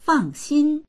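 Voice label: "fang4xin1", Mandarin Chinese; fàngxīn; 放心; 安心する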